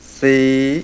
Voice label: mid rising modal, mpi